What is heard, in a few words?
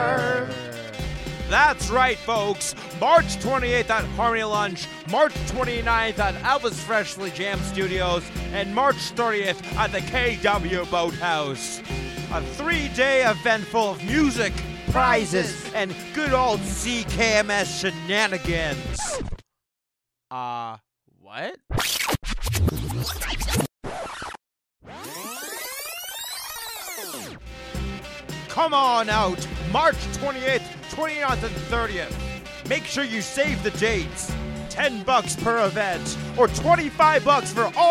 radio ad; ckms; fundraiser